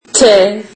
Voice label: turkish alphabet t